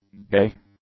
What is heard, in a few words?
"gay", English; adjectives